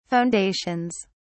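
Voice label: "foundations", English, British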